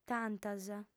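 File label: "tantas", female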